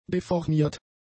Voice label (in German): "deformiert", german; adjectives